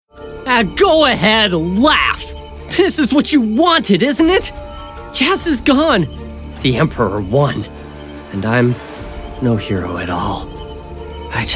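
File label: Animation, voiceover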